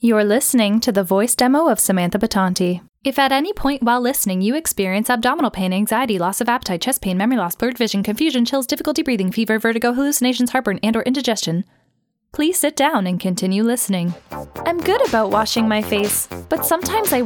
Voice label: Commercial, women